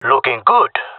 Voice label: compliment, jungle male; player vo; Counter Strike Model Pack; CS:GO

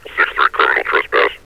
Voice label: criminal trespass 63, metropolice vo; Half Life Alyx

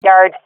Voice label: loc yard, swat fem; vo; agents; Source Model Packs; Counter Strike Model Pack; CS2

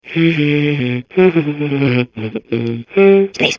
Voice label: babble; Portal 2

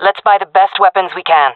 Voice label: request spend, jungle fem; vo; Counter Strike Model Pack; CS:GO

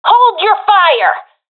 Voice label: taking fire friendly, professional fem; vo; agents; Source Model Packs; Counter Strike Model Pack; CS2

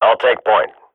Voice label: radio bot follow me, swat; agents

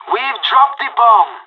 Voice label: spotted loose bomb, pirate

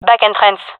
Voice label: gendermerie fem epic; player vo

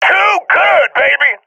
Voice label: cheer; Counter Strike Model Pack; CS:GO

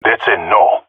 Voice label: negative, jungle male; agents; jungle male epic

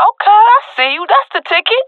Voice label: cheer; Counter Strike Model Pack; CS:GO; swat epic

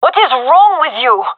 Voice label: taking fire friendly, gendermerie fem; vo

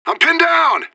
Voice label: radio bot under fire; fbihrt

